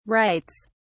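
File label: "writes", English, British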